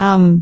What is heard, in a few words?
"aam4", cantone